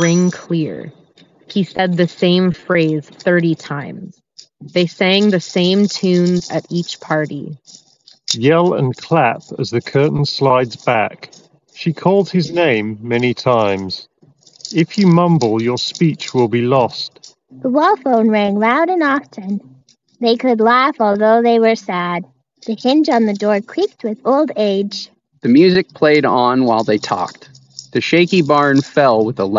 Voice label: OnePlus Nord Buds Office microphone sample

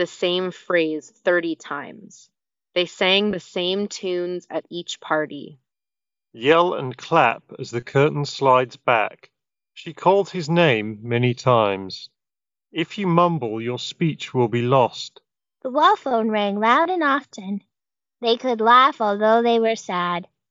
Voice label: Ideal microphone sample; Nothing Ear 2

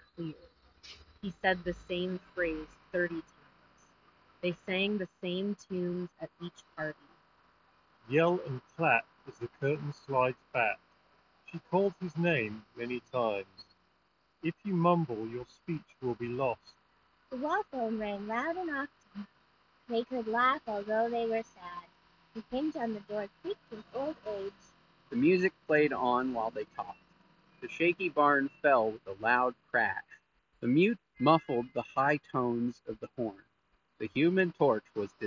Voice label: Street microphone sample; Skullcandy Hesh ANC

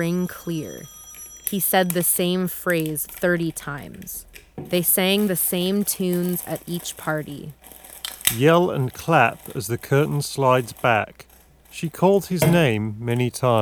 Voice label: Logitech Zone Learn office microphone sample

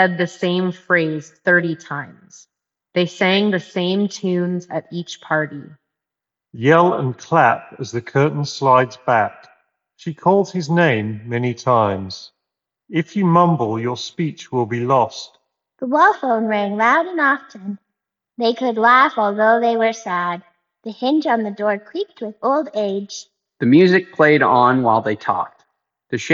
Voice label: Reverb; Jabra Elite 8 Active Gen 2

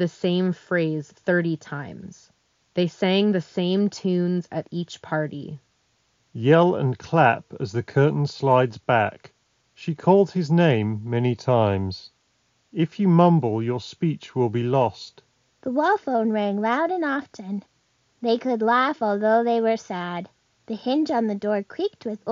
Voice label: Ideal microphone sample; Audio-Technica ATH-TWX7

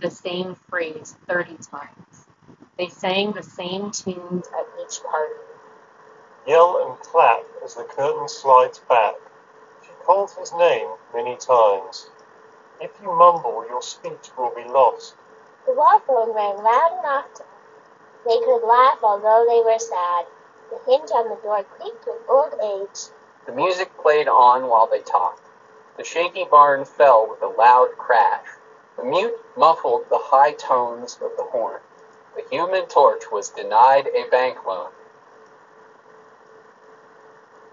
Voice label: Wind microphone sample; Jabra Elite 10

